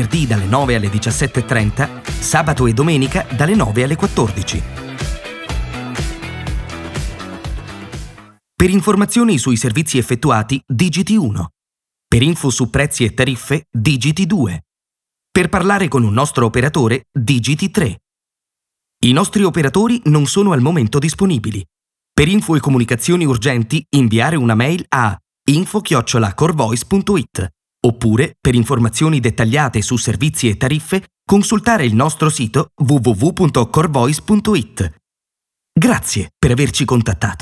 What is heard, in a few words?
IVR VOICE MESSAGE